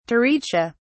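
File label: "taricha", British English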